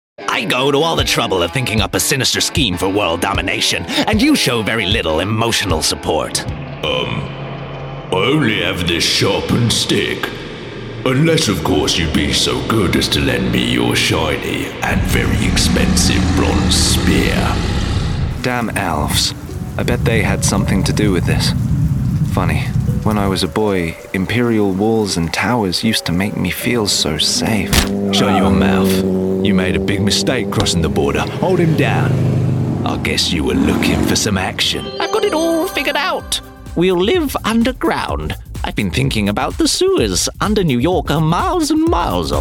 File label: Character; Animation/Character Reel